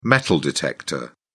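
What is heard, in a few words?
"metal detector", English, British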